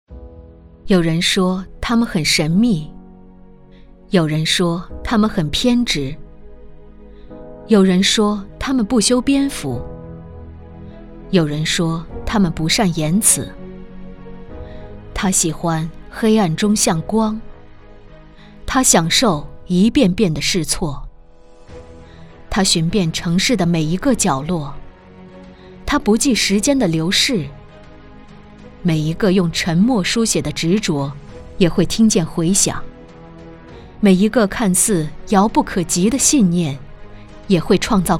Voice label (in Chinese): 旁白; 黑暗中向光智能助盲